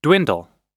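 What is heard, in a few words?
"dwindle", American English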